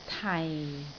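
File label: "tai4", Cantonese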